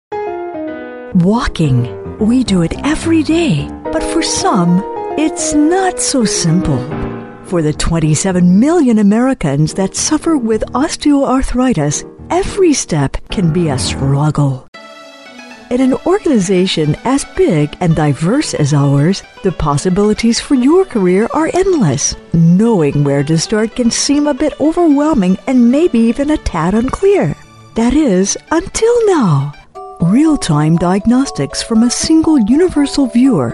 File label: eLearning Infomercial Training